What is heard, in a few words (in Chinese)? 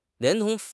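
"连同", Chinese; HSK6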